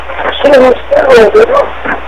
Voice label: spanish evp